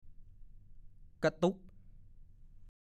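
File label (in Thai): "กะตุ๊ก" ภาษาโคราช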